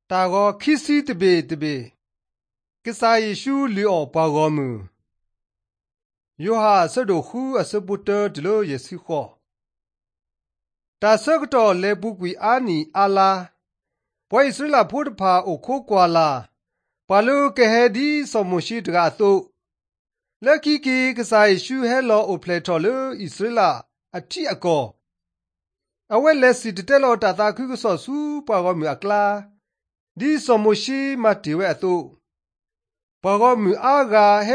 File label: drama, karen sgaw; mighty men of god; bible